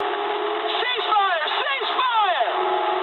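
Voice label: English player friendly fire mood medium; speech; War Thunder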